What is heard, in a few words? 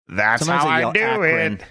saying that's how i do it funny taunting